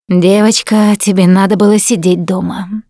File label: going to die, russian; Producer